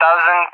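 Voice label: "1000s", English, Russia; Numbers; DCS; Allied Flight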